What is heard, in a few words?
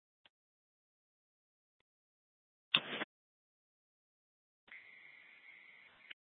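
Sheriff, Parks, Sheriff radio scanner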